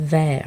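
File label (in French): "vert" French adjective, masculine singular; Colors and Adjectives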